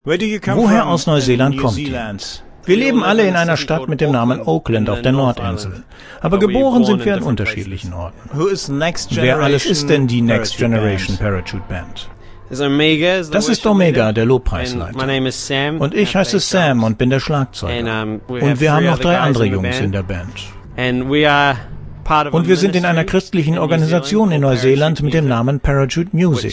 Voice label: interview